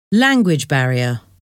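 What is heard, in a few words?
"language barrier", English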